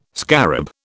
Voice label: "scarab", British